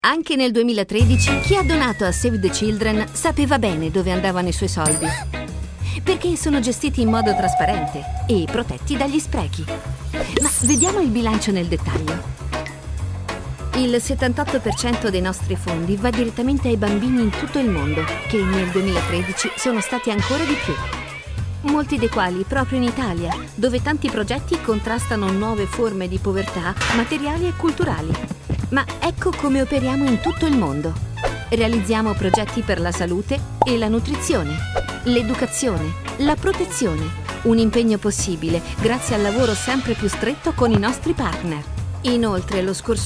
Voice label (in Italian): Voce DOCUMENTARY; DECIBEL